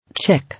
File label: "Chick", English